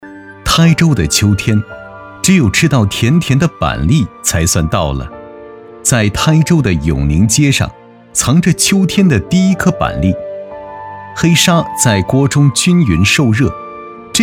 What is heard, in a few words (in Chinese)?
男 板栗; 舌尖